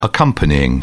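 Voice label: "accompanying", British English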